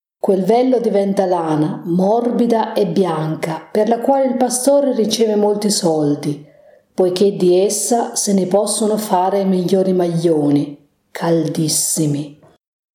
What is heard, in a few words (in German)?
italienisch